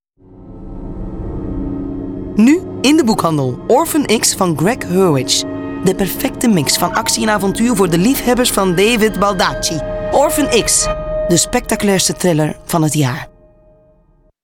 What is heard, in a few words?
NL thriller